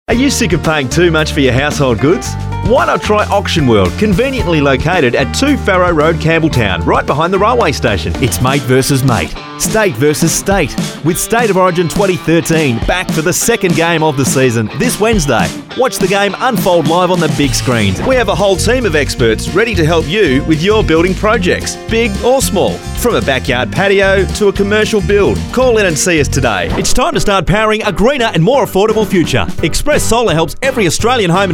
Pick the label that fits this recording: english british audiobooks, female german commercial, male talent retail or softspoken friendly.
male talent retail